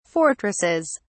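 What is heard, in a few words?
"fortresses", English, British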